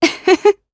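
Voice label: Vox Happy, Japanese; voices; heroes; Glenwys; kingsraid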